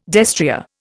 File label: "destrier", Indian